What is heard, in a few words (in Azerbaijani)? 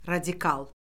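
"radikal", Azerbaijani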